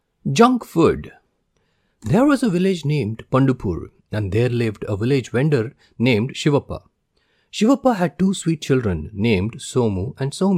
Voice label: Youtube English, Kids stories or narration; clear intonation and pronunciation; junk food